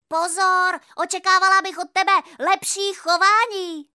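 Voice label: female Czech drama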